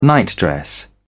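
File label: "nightdress", English, British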